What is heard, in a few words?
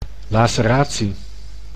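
"lacertatie", Dutch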